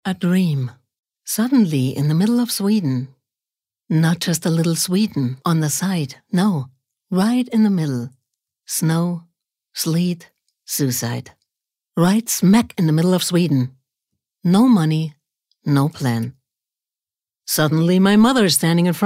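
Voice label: US English, Schauspiel Sanft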